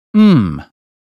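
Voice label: "criticism", British phonetics sound; 英语音标发音（剑桥版）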